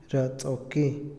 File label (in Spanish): "culpable", Otomí, hombre; INALI